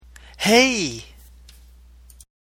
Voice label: Happy Hey